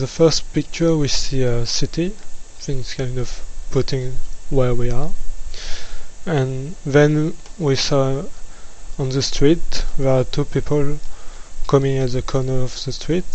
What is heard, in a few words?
french low